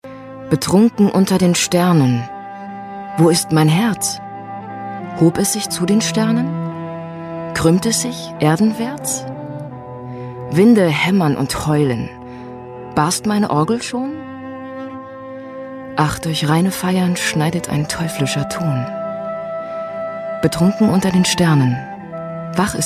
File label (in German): Schauspiel, Poetisch